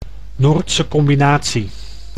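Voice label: "noordse combinatie", Dutch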